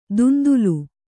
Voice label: "ದುಂದುಲು", Kannada